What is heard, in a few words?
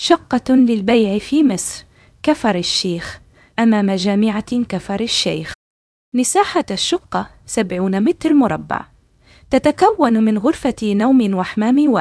narration audio